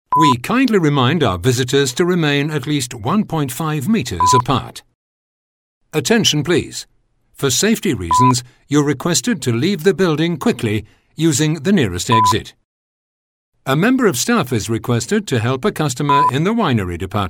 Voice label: UK, Messaging